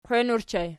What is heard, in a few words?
"qwein", Archi